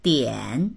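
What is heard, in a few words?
"dian3", Mandarin Chinese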